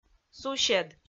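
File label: "сусед", Komi-Zyrian, female; audio dict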